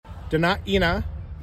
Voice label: Denaina Lake Clark Iliamna, pronunciation; (de-ne-EE-nah); Dena’ina Center